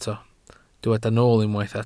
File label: "nool", Welsh